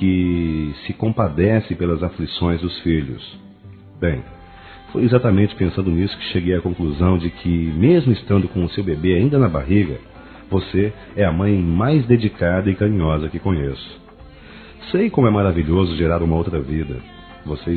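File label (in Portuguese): AMIGA GRÁVIDA, male, Telemensagens Dia das Mães; Masc; Telemensagens 2017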